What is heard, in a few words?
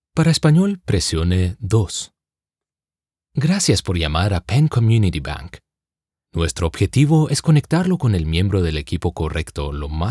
spanish latin american ivr